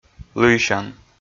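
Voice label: "лыйсян", Komi-Zyrian, male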